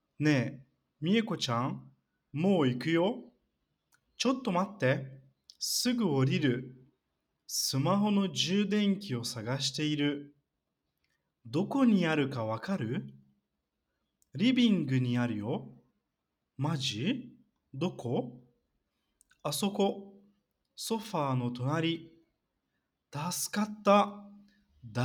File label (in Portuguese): Versão rápida; Semana 10; もう行くよ